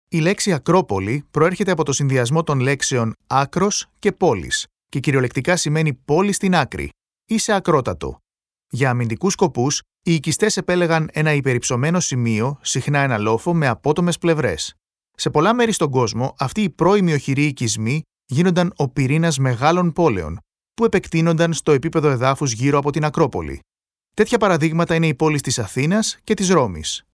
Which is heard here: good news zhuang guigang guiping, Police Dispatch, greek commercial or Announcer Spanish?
greek commercial